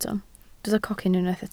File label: "cocyn", Welsh